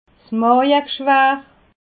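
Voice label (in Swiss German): "maaweg", Alsatian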